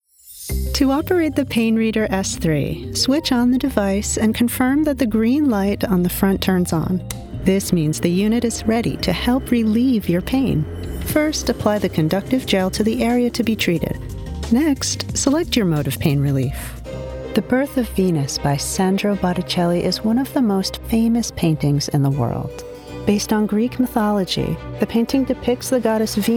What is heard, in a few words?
Narration